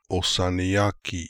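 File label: "Ossaniaki", pronunciations; Fourth Way; Beelzebub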